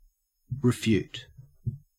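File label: "refute", Australian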